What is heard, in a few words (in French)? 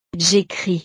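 "j ecris", French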